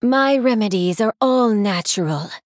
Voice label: Female NightElf Play